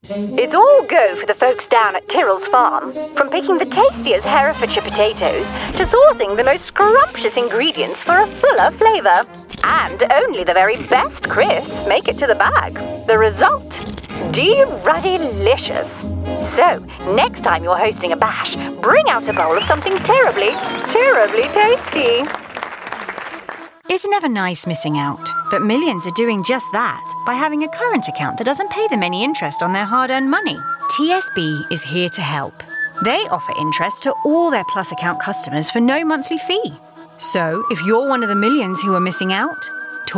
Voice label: Commercial female

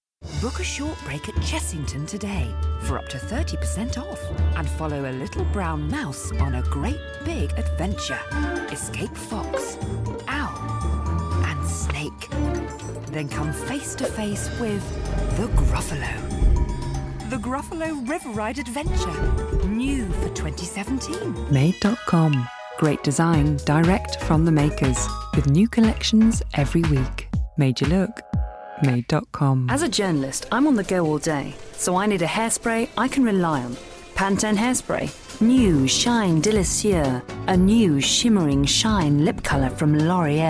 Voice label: commercial work

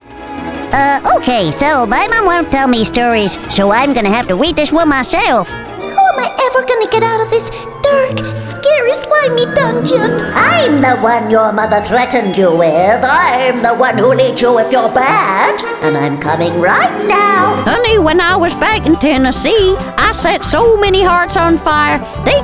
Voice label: Cartoon

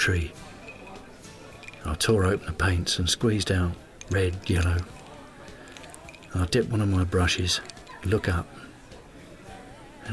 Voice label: Dramatic

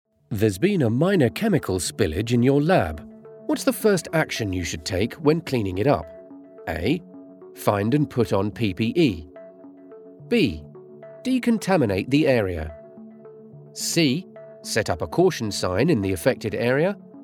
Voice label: Elearning reel

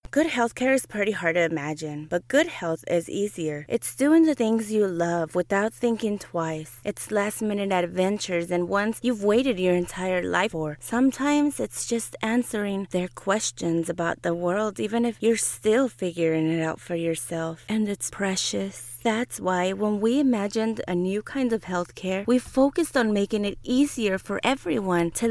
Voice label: e-learning

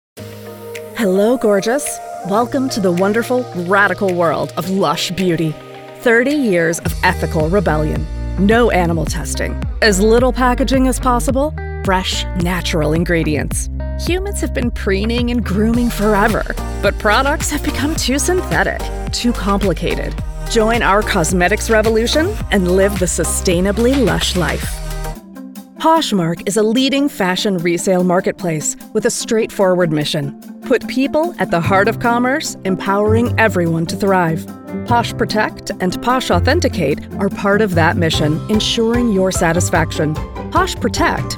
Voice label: CORPORATE

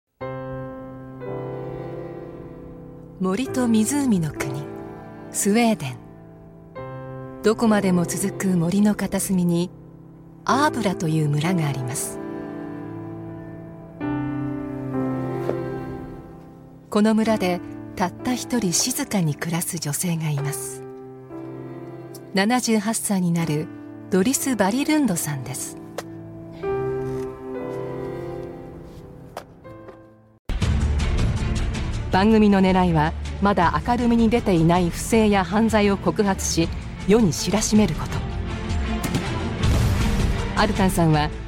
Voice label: Documentary

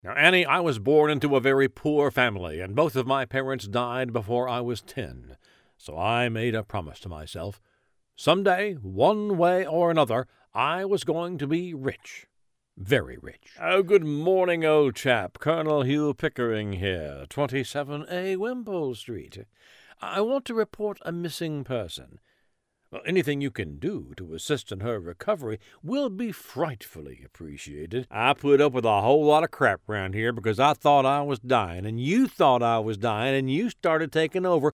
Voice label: Characters Accents

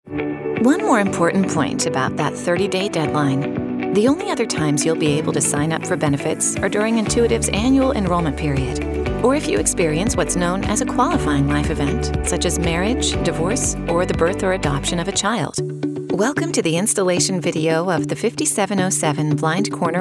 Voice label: Corporate Explainer

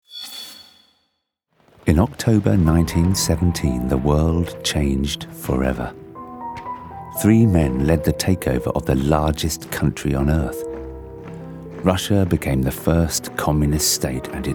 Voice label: Voice Reel Docos Stories Plays

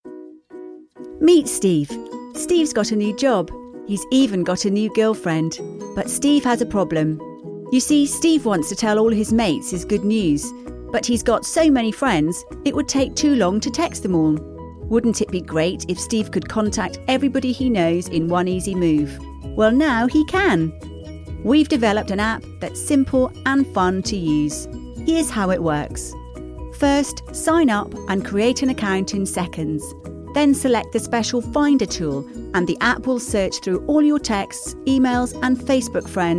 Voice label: Explainer Video

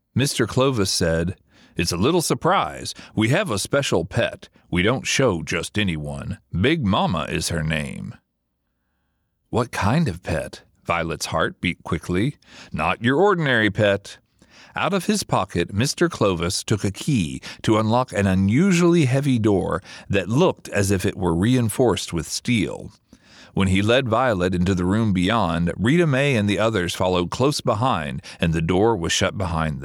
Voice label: AUDIOBOOK

